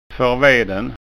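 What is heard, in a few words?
"forveden", Scanian dialect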